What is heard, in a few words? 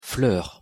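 pronunciation "fleur", French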